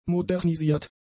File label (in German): "modernisiert", German; adjectives